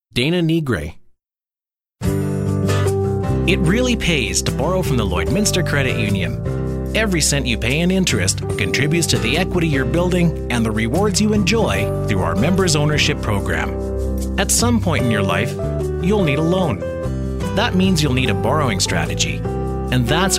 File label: Telephony